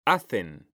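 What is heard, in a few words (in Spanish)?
"hacen", Spanish, male; mekanta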